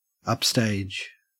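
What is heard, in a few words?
"upstage", Australian English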